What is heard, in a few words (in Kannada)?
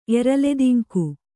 "ಎರಲೆದಿಂಕು", Kannada